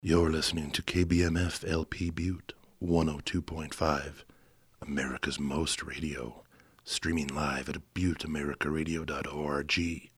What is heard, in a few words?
Seductive Adult Male ID; STATION IDs